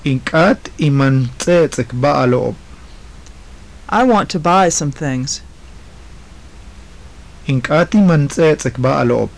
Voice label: phrases, maya